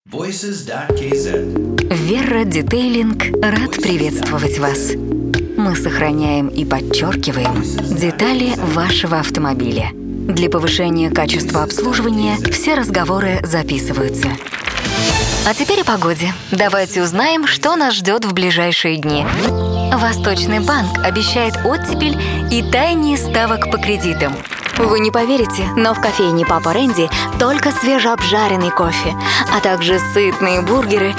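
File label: Russian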